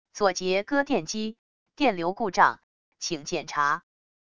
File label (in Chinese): 语音, 语音报警器